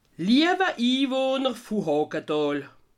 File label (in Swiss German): "iwohner", alsace